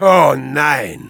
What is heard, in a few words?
negative vocalization, de; Spy; "Oh, nein..."